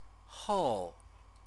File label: "hou", Chinese